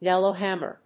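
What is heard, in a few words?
"yellowhammer", English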